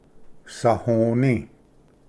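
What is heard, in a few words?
"sahoni", Cherokee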